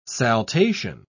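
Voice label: "saltation", British English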